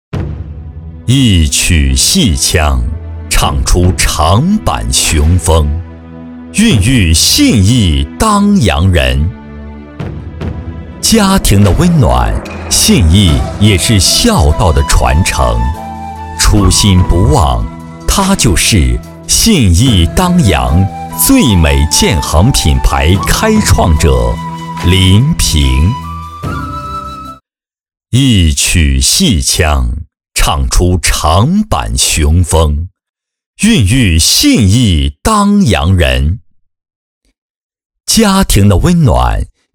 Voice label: 男,厚重

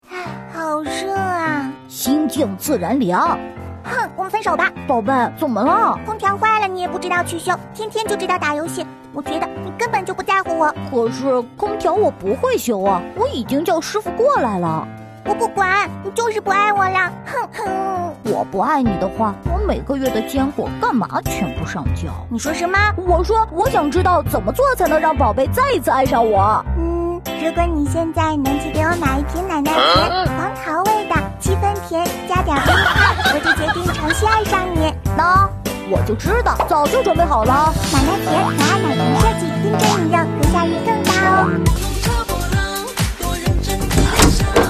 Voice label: 女, 童声类, 男童; 三只松鼠系列男童

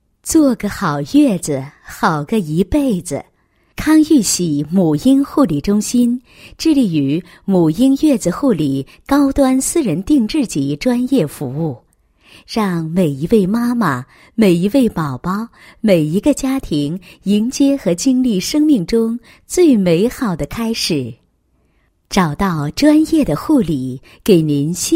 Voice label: 女 宣传广告; 康玉喜母婴护理中心

